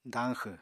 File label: "dañxw", Apatani